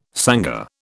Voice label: "sangar", British